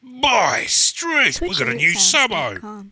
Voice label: Aussie subscriber